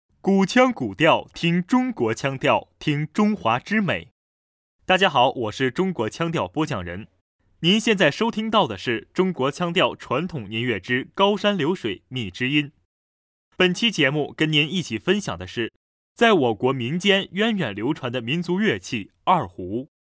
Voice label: 古腔古调

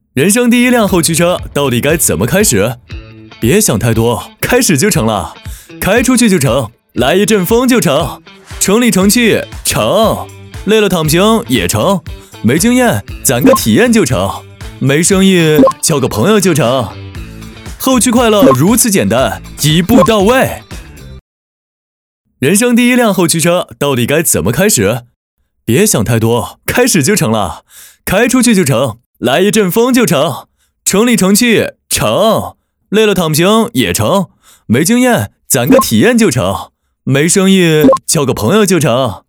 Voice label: 年轻时尚活力; 凯迪拉克勒芒橙

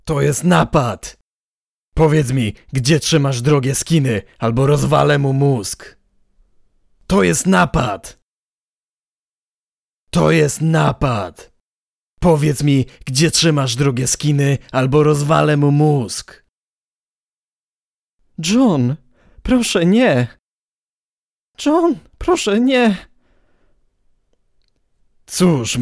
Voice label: 波兰语男角色磁性

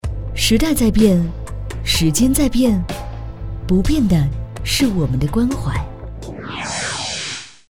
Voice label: 沉稳

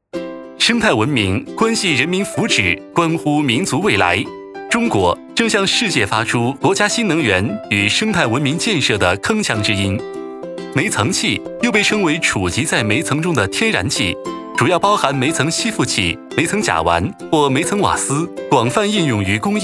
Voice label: 轻快积极活力

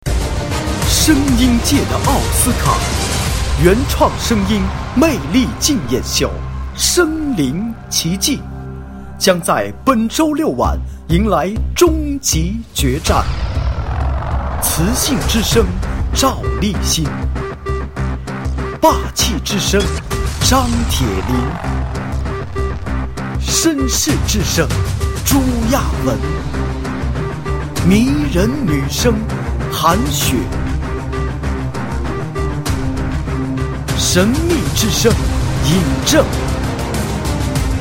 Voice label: 旁白配音; 湖南卫视声临其境

